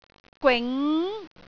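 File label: "gwing2", Cantonese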